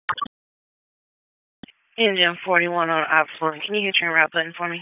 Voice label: FIRE OPS, p25